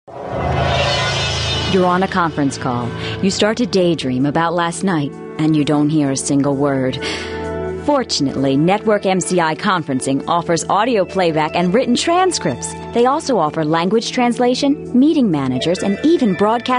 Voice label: American Female